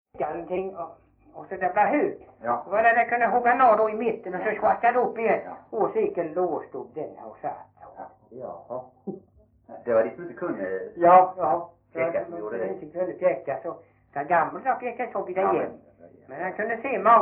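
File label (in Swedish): Veddige Halland, Svenska sagor dialekter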